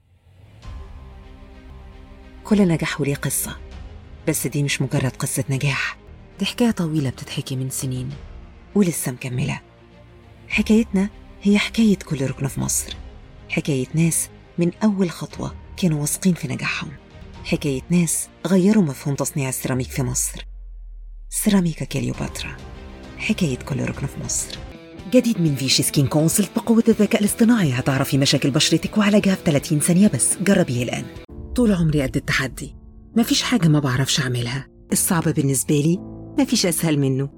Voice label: Commercial